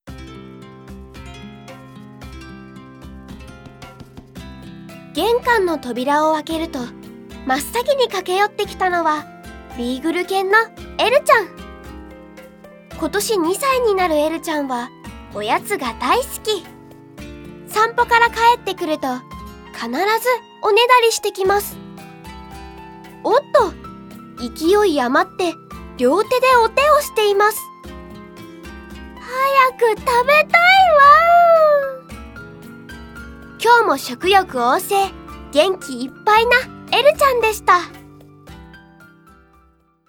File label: narration